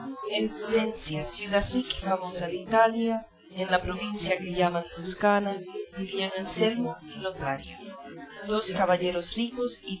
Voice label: female; SASSEC07

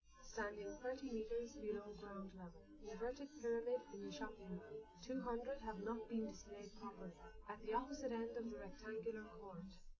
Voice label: female live rec; SiSEC10; underdetermined

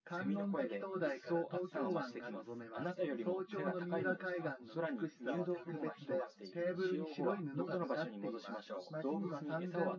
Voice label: male; SiSEC08; SiSEC underdetermined

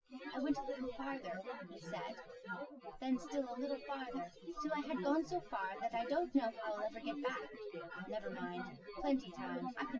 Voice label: test female synthconv 130ms 1m src

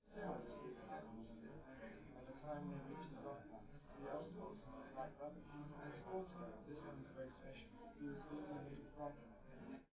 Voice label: test male live rec; SiSEC11; underdetermined

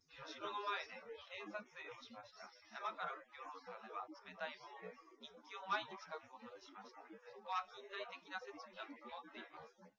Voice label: male liverec 250ms 5cm; SiSEC08; SiSEC underdetermined

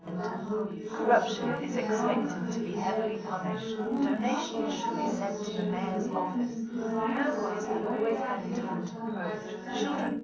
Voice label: female4 380ms 5cm, underdetermined; SiSEC11

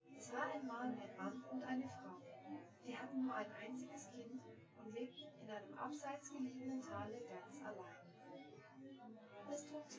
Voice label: female synthconv 5cm; SASSEC07

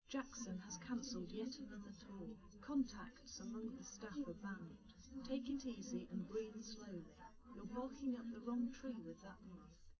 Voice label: test female live rec; SiSEC11; underdetermined

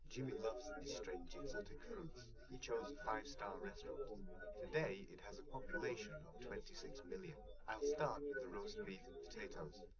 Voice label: male, live rec, 130ms, 1m

